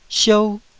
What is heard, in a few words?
"siou1", Taiwanese syllable